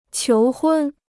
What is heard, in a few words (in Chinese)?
"求婚", Chinese